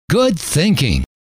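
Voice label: good thinking, male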